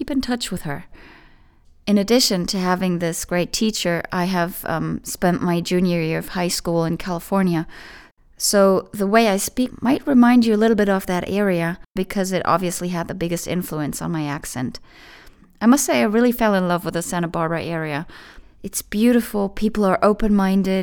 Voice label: US English